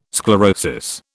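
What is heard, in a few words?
"sclerosis", British English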